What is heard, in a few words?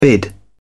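"bid", British English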